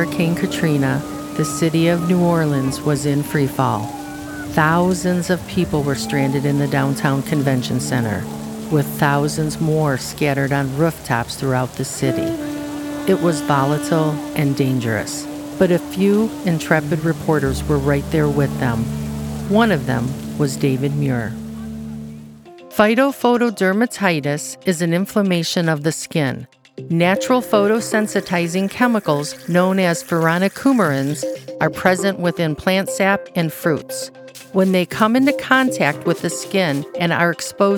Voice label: Professional Female Voice Over